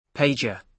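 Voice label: "pager", British English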